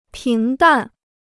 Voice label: "平淡", Chinese